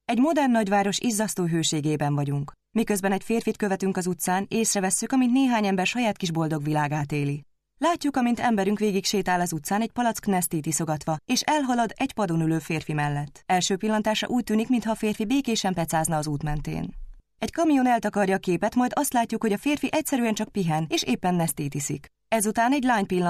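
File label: Hungarian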